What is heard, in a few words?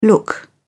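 "look out", British English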